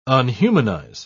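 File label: "unhumanize", British English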